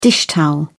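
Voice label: "dishtowel", British English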